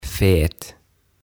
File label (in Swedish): "Fet" "Lihava"